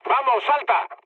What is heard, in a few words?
bailout, mood med, speech; War Thunder